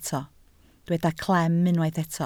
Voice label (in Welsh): "clem", Welsh